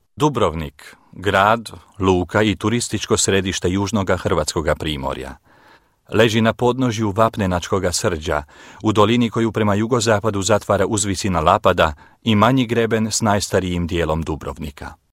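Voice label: croatian male voice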